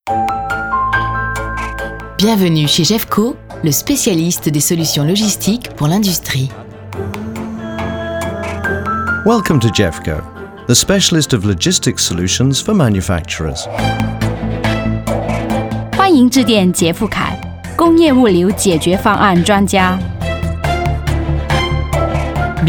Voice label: hold on message; gefco group